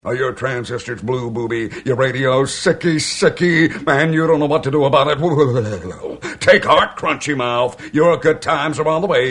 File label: Tickle Kit Promo; WKLO